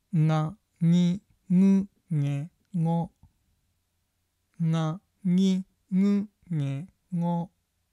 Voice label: nga ngi ngu nge ngo, pronunciation